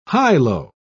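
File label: "high-low", English, British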